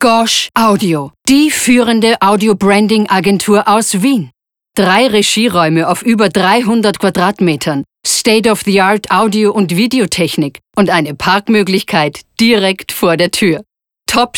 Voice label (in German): plakativ